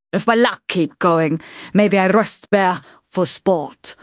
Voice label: happy; fertig classic games